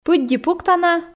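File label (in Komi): пыдди пуктана, Komi, female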